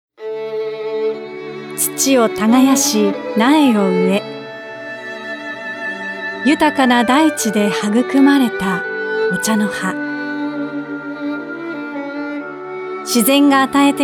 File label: Narration